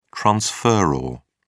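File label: "transferor", British English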